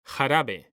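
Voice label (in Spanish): "JARABE", male; mekanta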